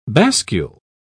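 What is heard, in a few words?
"bascule", British English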